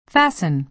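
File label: "fasten", American English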